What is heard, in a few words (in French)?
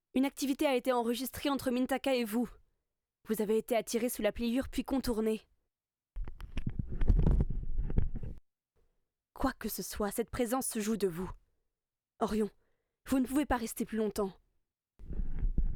voix radio compression; Babel